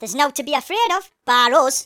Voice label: "you'll regret that", Geordie; speech

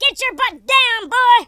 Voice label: take cover, Drill Sergeant, speech

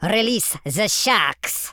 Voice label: fatality, Super Villain, speech

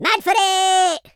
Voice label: "excellent", Madchester

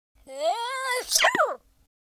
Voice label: idle, US Sports speech

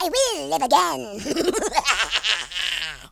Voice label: bye bye, Horror

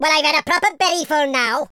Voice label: stupid, Welsh; speech

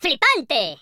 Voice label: brilliant, Spanish; speech

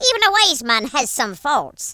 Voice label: "bummer", Irish; speech

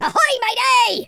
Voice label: "hello", Pirate

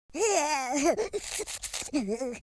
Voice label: sad, Horror

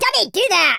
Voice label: go away, Scotsman; speech

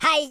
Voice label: jump, speech, Super Hero

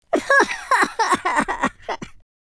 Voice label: lose loop, Footy Fan speech

